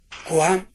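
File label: "gowan", Minamiyamagata dialect Japanese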